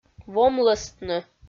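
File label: "вомлӧстны", Komi, female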